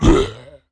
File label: orc hit